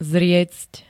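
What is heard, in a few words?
"zriecť", Slovak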